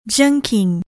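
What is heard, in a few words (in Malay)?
"jengking", Malay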